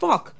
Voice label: "fuck", expletives